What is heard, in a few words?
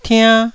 "tiann1", Taiwanese